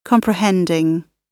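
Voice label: "comprehending", British English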